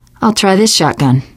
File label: take shotgun, teengirl; voice; source engine; left 4 dead 2; survivor